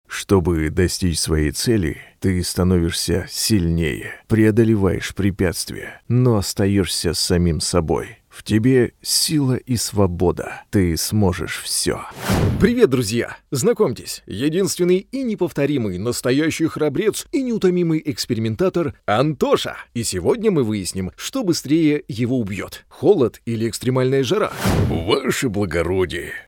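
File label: Russian general; GoLocalise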